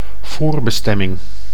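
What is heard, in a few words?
"voorbestemming", Dutch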